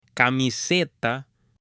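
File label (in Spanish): "CAMISETA", Spanish, male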